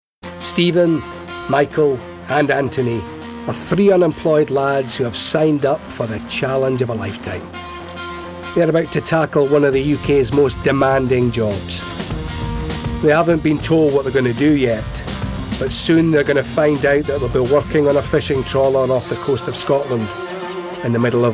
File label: Narrative; Hard Labour